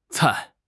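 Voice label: "cai4", Mandarin Chinese; HSK1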